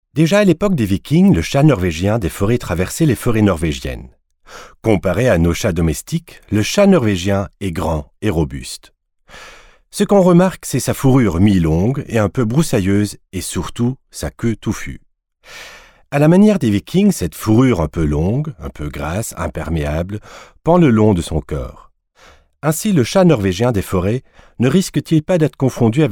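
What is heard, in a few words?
fr voiceover, French; sprecher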